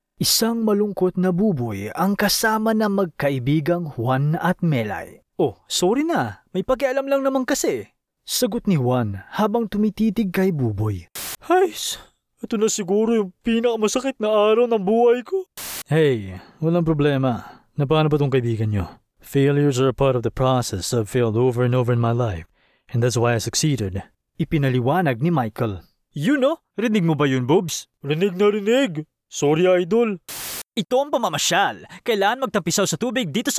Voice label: Tagalog M Characters